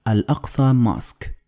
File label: "al-Aqsa mosque", Arabic